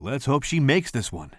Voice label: commentator